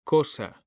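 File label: "COSA", Spanish; mekanta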